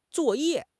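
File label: "zuo4 ye4", Mandarin Chinese; HSK3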